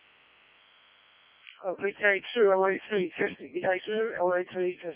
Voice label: decoded speech, parrot